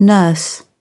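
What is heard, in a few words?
"nurse", British English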